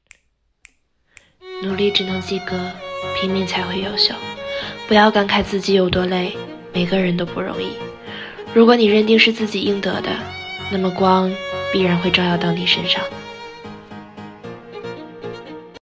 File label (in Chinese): 普通话, 女配音